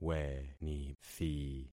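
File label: "wenithi", concatenated syllables; Affixing experiment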